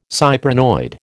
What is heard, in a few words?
"cyprinoid", American